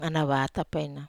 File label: "ana vata", Wamesa